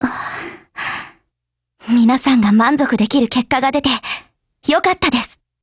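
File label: WIN Japanese, Voice; LWMMGMod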